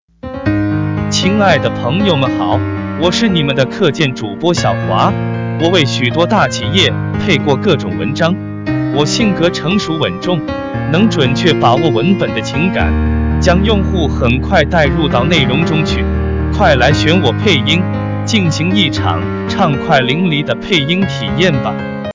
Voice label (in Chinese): 男 自我介绍